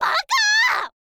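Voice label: "baka", Japanese, girl; voice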